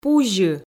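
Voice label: "пузьы" Komi, female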